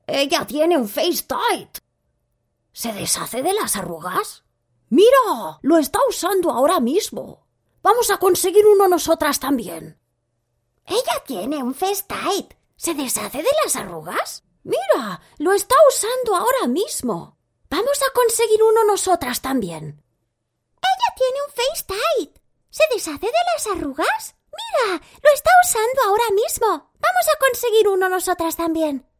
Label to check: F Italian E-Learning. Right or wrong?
Wrong